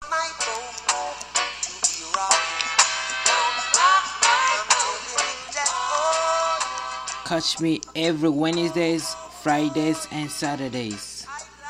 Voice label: advertisement